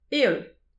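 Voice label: "heol", Breton; petit precis de prononciation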